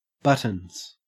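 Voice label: "buttons", Australian English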